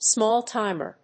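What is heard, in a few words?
"small-timer", English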